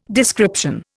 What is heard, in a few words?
"description", Indian